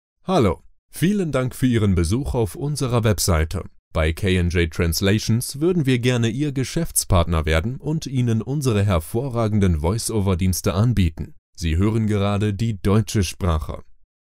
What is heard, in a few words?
german male